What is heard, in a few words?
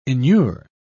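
"enure", English, British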